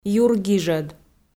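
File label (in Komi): "юргижӧд" Komi, female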